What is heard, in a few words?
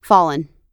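"fallen", American English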